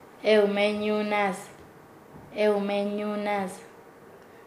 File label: "haciendo mal", Nasa Yuwe; paez